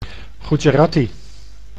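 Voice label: "Gujarati", Dutch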